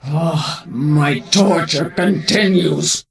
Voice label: NPC Death Knight Female Low Pissed